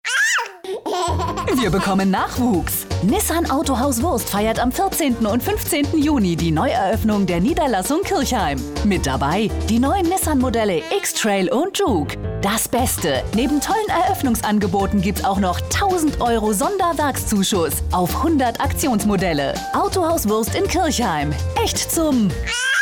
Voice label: Werbung froehlich

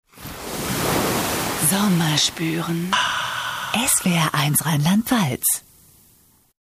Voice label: Jingle relaxt; SWR1

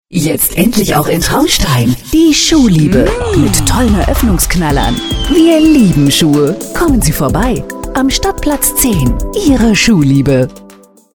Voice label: Werbung charmant

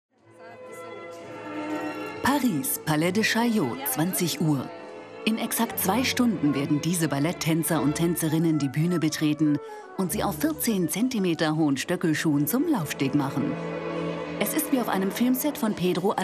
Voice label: Off-Kommentar erzaehlerisch

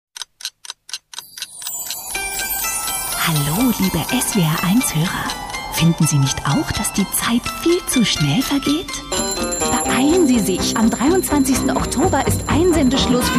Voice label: Promo festlich; SWR1

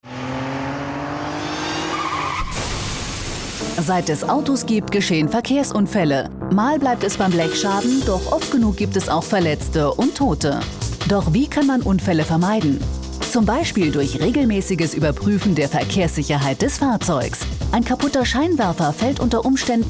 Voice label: Werbung informativ

